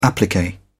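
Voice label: "applique", British English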